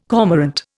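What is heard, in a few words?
"cormorant", Indian English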